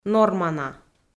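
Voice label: "нормана", Komi, female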